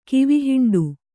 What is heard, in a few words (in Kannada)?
"ಕಿವಿಹಿಂಡು", Kannada